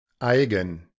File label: "aigan", Proto-Germanic